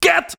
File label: "get", shouts; Best Service; Hyper Dance